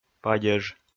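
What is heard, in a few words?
"падеж", Komi, male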